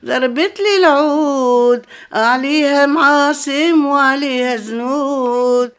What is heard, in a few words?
OLD WOMAN, Arabian Traditions